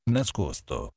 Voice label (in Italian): "nascosto", Italian